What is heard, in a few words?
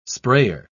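"sprayer", English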